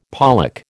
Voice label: "pollack", American